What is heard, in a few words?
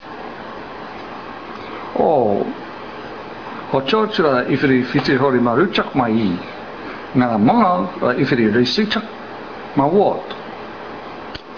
Tobian, dialogue; O, hochoch ra ifiri fitihorimaruchah ma ih ngara mangau ra ifiri reesachah ma wot; Oh, hochoch is for meat and fish and mangau for rice and taro?; Dialogue About Two Words for Eating: mangau and hochoch